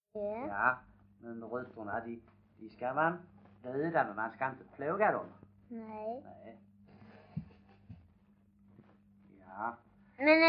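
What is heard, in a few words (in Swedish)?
Stehag Västra Sallerup Skåne, Svenska sagor dialekter; Folkminnen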